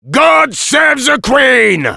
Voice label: battle cry, fr